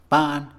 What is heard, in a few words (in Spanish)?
nido, Mixe, hombre; Animales; INALI